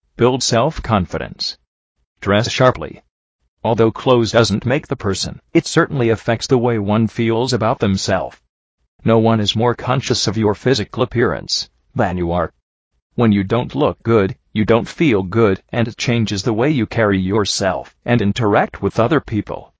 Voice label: English Male